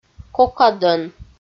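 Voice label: "кокадӧн", Komi, female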